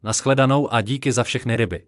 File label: so long, Czech